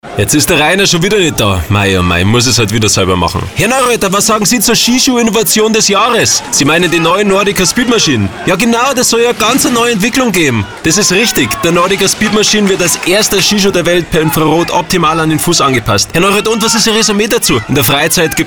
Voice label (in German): Radiospot; Radiospot mit Felix Neureuter; für NORDICA SPEEDMACHINE; Radiospot Demo